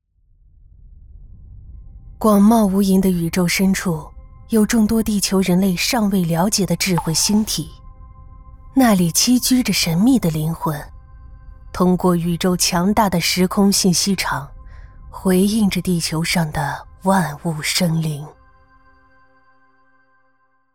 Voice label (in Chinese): 宇宙纪录片